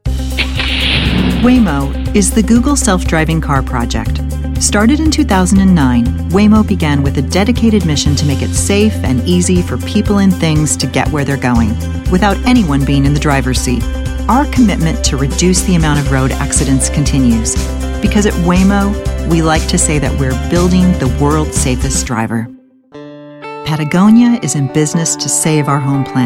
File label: Corporate